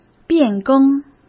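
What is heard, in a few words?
"变更", Mandarin Chinese; hsk; chinese-flashcards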